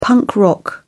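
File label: "punk rock", British English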